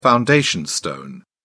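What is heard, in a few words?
"foundation stone", English, British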